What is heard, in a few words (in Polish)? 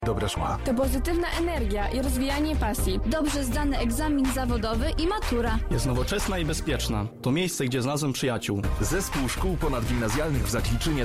reklama; zakliczyn